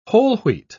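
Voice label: "whole-wheat", British English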